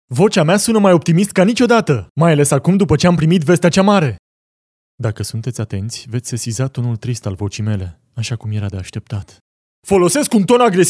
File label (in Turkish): Romence Erkek; romence seslendirme; erkek sesler